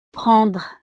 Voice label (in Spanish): "prendre", frances